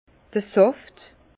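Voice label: "saft", Alsatian, Bas Rhin, sève; vin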